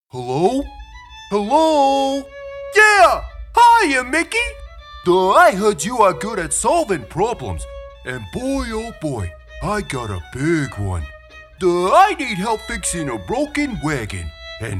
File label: Cartoon Character